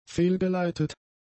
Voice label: "fehlgeleitet", german; adjectives